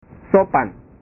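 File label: "sopan", Indonesian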